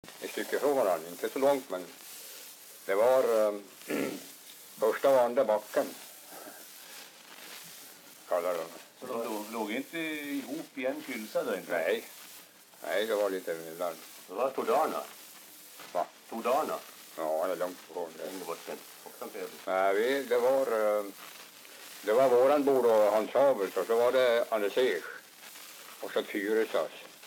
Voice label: dialekter; Folkminnen

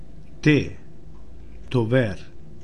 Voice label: "Tover", Romska

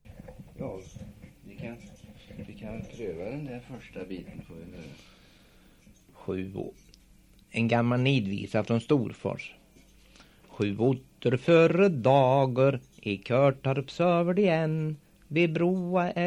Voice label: Kroppa, dialekter; Svenska sagor; Folkminnen

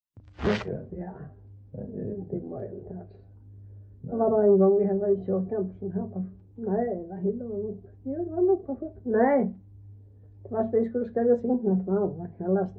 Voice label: Röddinge, dialekter; Folkminnen

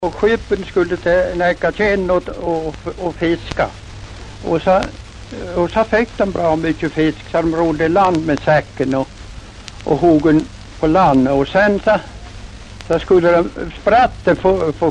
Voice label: 40-tal Edsbyn, dialekter

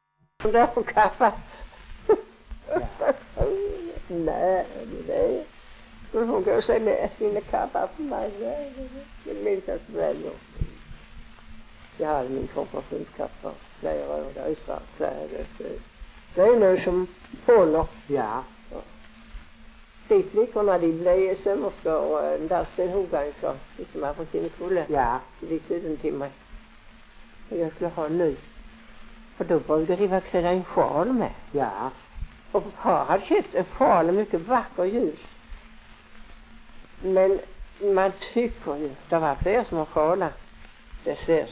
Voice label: Lunds stad SK, dialekter; Folkminnen

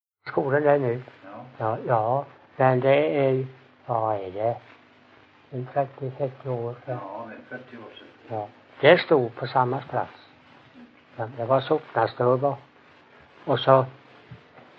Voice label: Ingatorp SMÅL, dialekter; Folkminnen

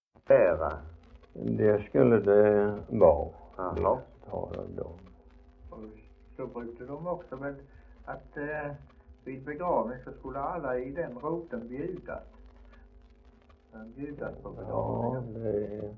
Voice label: Idala, dialekter; HALL

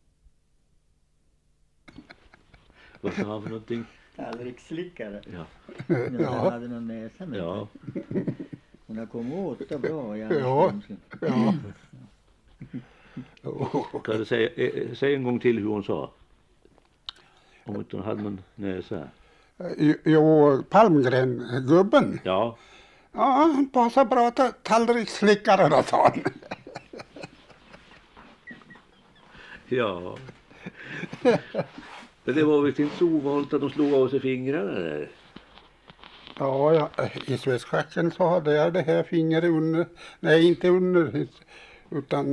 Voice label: Segersta, dialekter; Svenska sagor; Folkminnen

